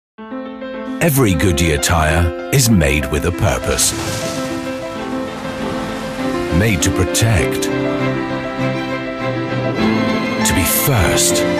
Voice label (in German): Eng UK Werbung Dynamisch